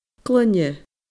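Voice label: "gloine", Scottish Gaelic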